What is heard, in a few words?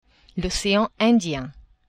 "ocean Indien", French; geographie